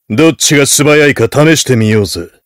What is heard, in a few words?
heavy taunts